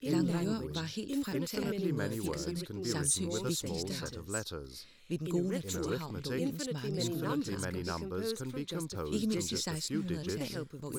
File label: speakers dry ambi2bin